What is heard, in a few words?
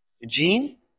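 VoiceActing es M2, voice acting, Spanish, male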